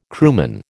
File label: "crewman", American